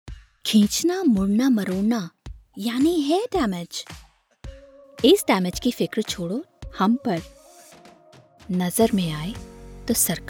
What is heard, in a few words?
Commercial hindi music